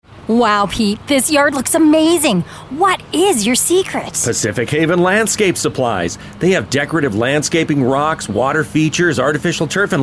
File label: radio ad